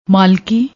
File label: "maalki", Punjabi; ਮਾਲਕੀ